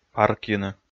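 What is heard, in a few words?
"паркйыны", Komi, male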